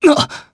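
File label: Vox Sur Japanese; Theo; kings raid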